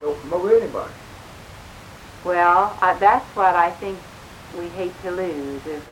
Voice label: Oral history with 54 year old black female Grand Junction Colorado and a 61 year old black; american english dialect recordings